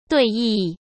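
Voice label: "对弈", Mandarin Chinese; chinese-flashcards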